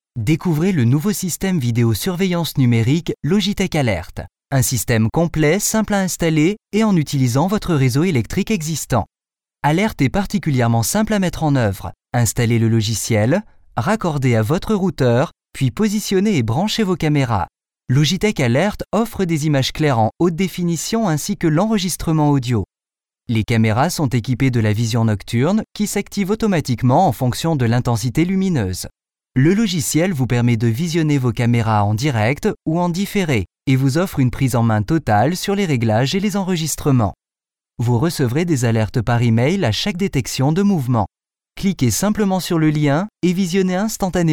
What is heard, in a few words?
web explainer, Upbeat Energetic French